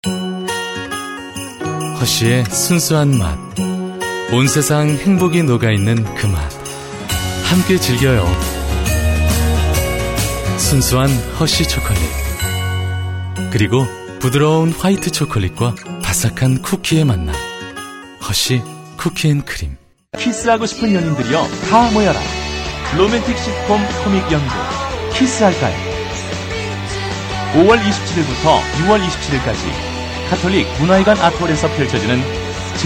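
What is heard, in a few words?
Korean, male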